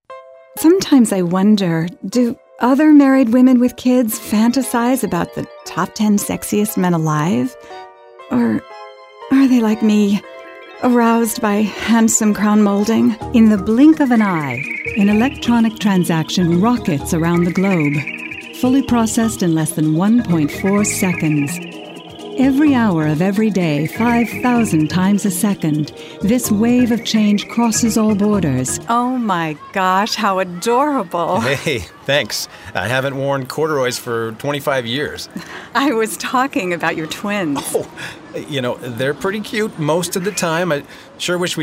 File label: Commercial